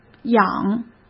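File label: "氧", Mandarin Chinese; chinese flashcards; hsk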